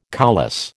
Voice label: "coulisse", American